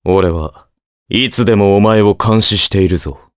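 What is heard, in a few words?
Vox, Japanese; voices heroes jp; Crow; kingsraid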